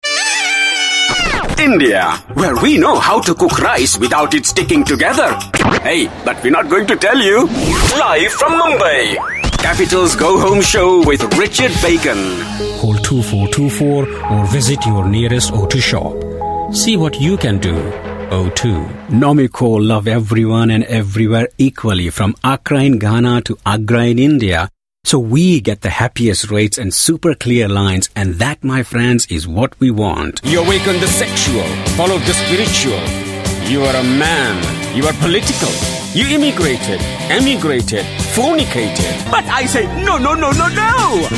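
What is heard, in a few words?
male voice, English accent, Hindi; GoLocalise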